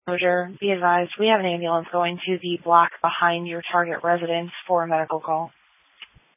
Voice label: Police Tactical Encrypted